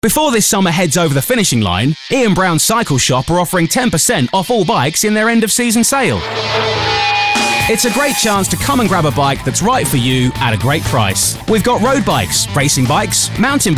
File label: Vibrant Friendly Radio Commercial